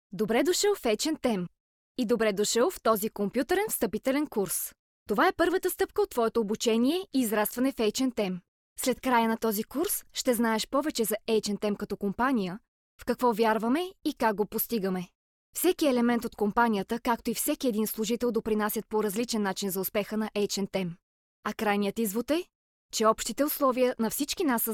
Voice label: bulgarian f e-learning; voice demos

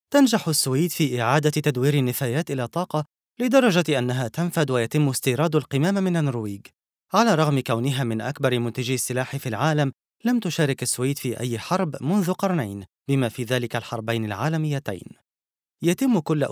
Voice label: arabic m narration

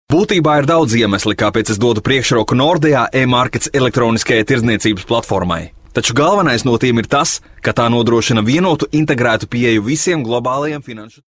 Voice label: latvian m commercial, Latvian voice; voice demos

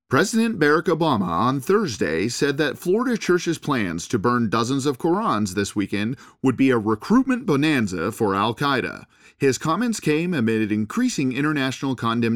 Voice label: english us m narration, voice demos

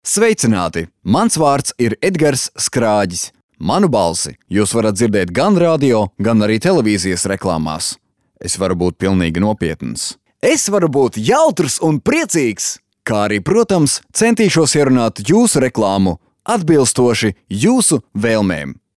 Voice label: latvian m commercial, latvian voice demos

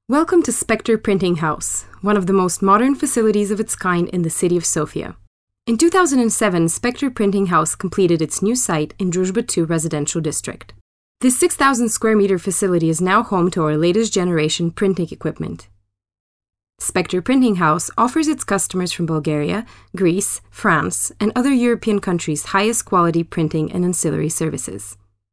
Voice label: english us f narration, voice demos

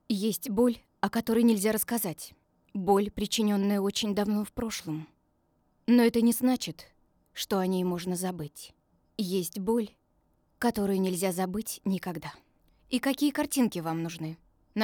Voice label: russian f narration; voice demos

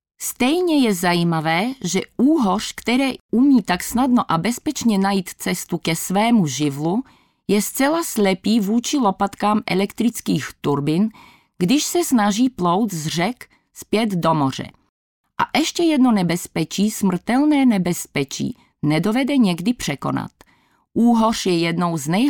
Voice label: czech f narration, czech voice

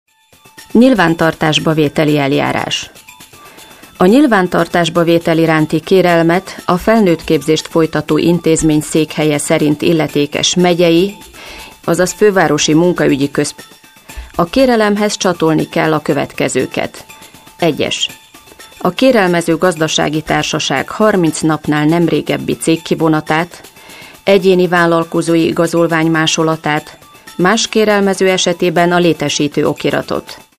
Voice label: hungarian f commercial; voice demos

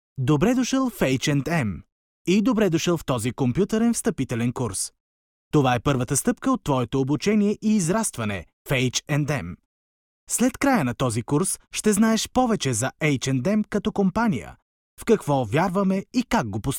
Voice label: bulgarian m e-learning; voice demos

